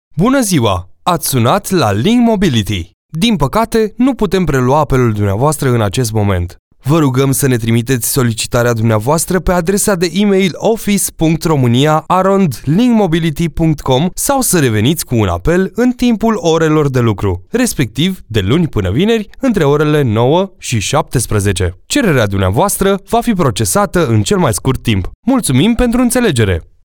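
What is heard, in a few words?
romanian interactive voice response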